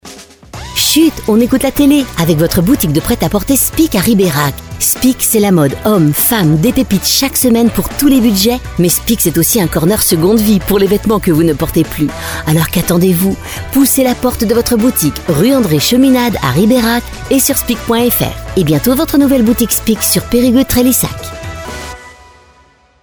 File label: Sponsor Promo; Chut on écoute la télé avec vos boutiques speak en Nouvelle Aquitaine et Centre; dordogne